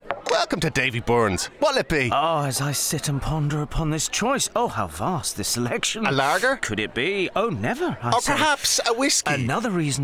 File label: Radio; Tourism Ireland; Literary Dublin